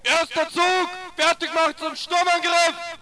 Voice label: ger prepare

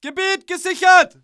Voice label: ger area secure

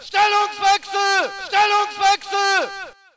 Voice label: ger displace

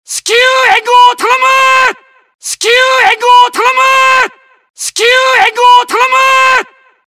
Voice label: jpn need ammo

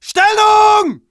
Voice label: ger take cover

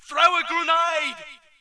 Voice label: brit use grenades

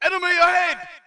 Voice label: brit enemy ahead

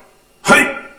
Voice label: jpn yes sir

